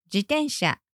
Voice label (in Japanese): "自転車じてんしゃ", Japanese